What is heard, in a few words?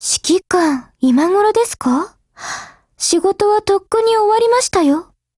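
hello, Japanese; GF Alarm; Carcano1938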